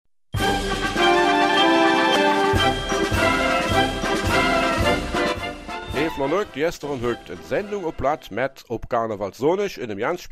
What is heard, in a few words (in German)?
Eifeler Mundart; Karneval in Hünningen